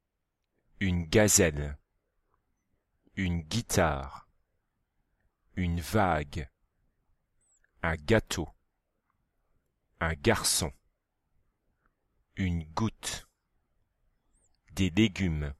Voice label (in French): lecture son g